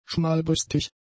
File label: "schmalbrüstig", German; adjectives